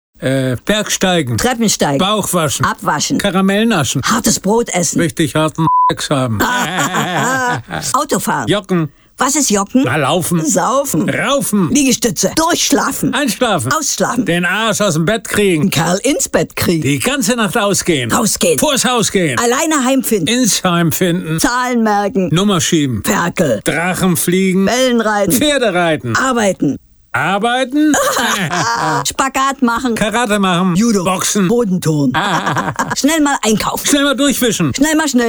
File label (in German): Funkspot; Nepos; Berg